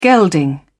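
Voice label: "gelding", British English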